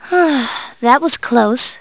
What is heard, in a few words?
Sigh... That was close; Riviera; Serene